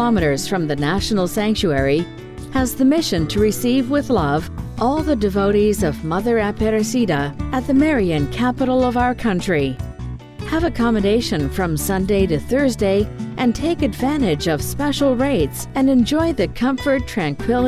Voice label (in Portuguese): espera telefonica voz ingles hotel; hotel rainha do brasil